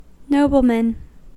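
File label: "nobleman", US English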